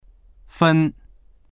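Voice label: "fen1", Mandarin Chinese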